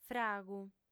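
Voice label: "fragu", Sardinian, female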